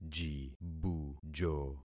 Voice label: "jibujo", concat syllables; Affixing experiment